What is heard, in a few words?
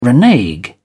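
"renege", English, British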